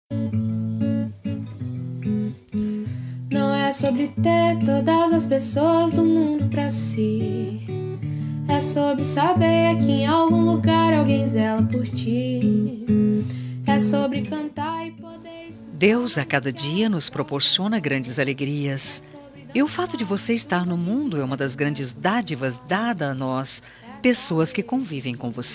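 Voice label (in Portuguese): FELIZ ANIVERSARIO female, telemensagem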